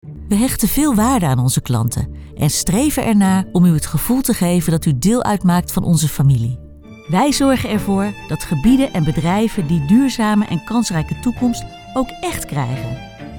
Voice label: corporate